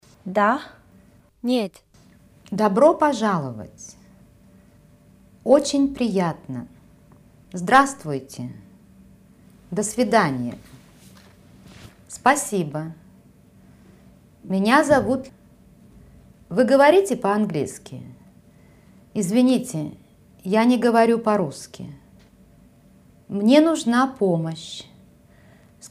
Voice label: Russian